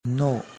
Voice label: "Kno", Angami; You